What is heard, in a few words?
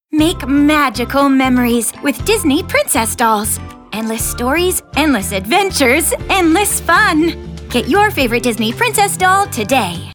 Kids